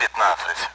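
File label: Speech Sound Russian Common AWACS Numbers; DCS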